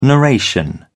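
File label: "narration", British English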